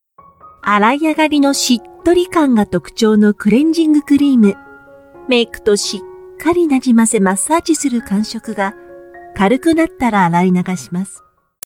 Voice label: Japanese Organic Skincare, japanese female voices